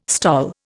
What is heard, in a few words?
"STOL", Indian